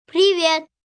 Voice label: Russian Hi, Greetings, 1 boy, 4 yrs., Russian; Talking; VoiceBox